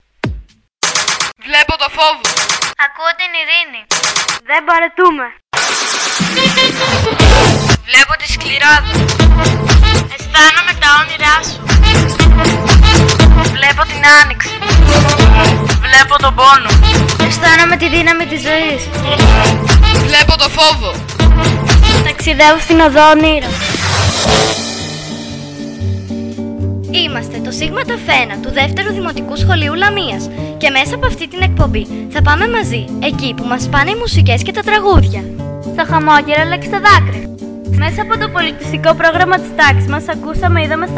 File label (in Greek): spot